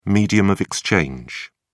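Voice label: "medium of exchange", English, British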